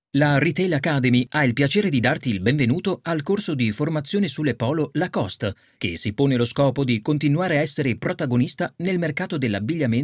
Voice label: e-learning; lacoste